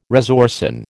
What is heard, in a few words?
"resorcin", American